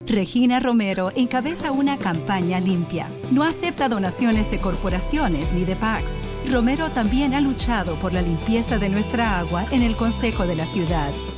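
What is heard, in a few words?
Political Ad Spanish; Regina Romero -Tucson Mayor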